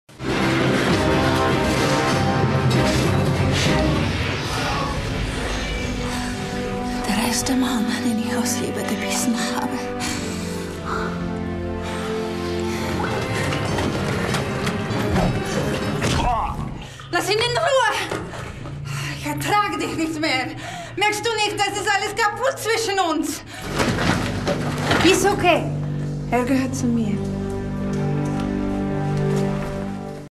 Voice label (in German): akzent transilvanisch vampir